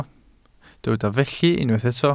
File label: "felly", Welsh